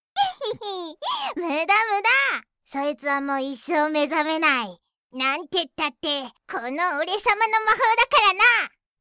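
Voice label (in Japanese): サンプルボイス, 小悪魔マスコット (ハチャメチャ)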